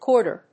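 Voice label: "corder", English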